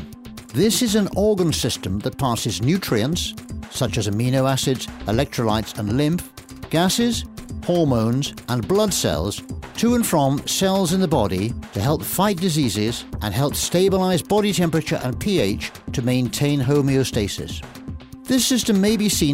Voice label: e-learning